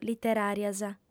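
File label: "literàrias", female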